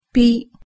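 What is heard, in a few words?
"pi", Japanese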